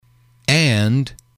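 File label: "and", male, English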